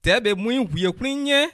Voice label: toilet, bassa